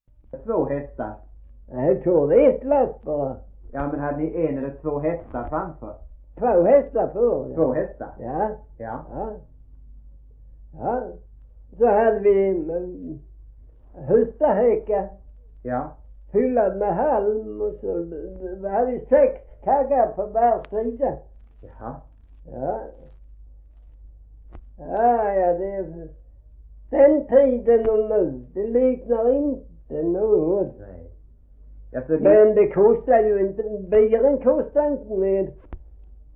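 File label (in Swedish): Gråmanstorp Skåne, Svenska sagor dialekter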